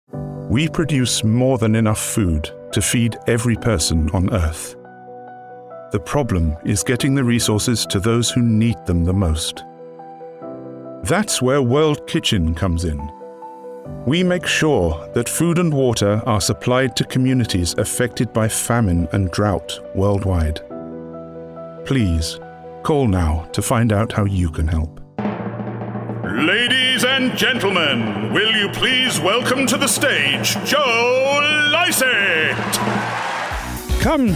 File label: Versatile, voiceover